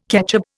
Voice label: "ketchup", Indian